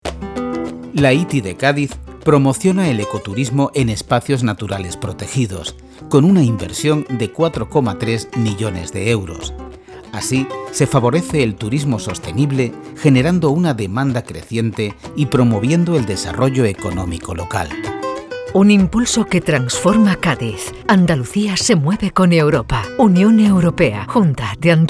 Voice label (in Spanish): Cuña; Espacios naturales; puesta en valor y promocion ecoturisitica de espacios naturales